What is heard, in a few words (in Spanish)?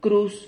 "cruz", Silabas